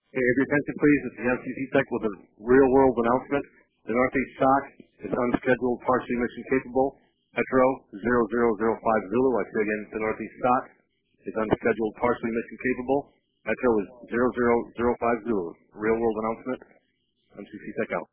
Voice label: Announcement; Real World; NEADS